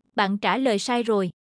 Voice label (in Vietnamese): âm thanh bạn trả lời sai rồi giọng nữ miền nam